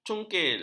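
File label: "cungkil", Malay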